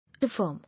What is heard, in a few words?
"Affirm", English